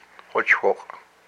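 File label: "my testicles", Hupa, male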